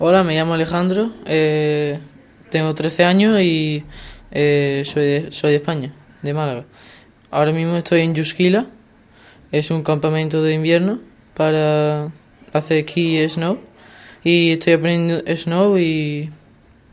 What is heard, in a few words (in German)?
stellt sich vor spanisch; JUSKILA 2014